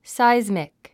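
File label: "seismic", English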